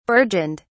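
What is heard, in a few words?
"burgeoned", British English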